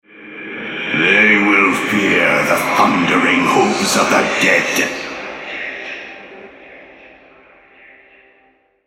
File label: Altar Capture, Male; Hecarim; en US